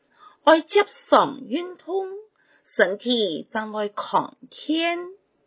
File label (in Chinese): (四縣)客語; 生活常規